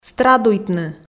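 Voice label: "страдуйтны", Komi, female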